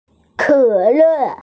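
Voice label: "cola", Mandarin, hearing-impaired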